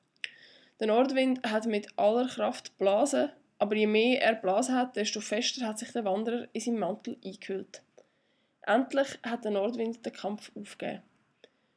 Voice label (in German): Nordwind Dialekt, intonation